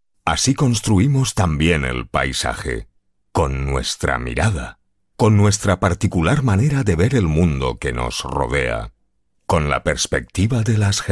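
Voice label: Spanish Spain male upbeat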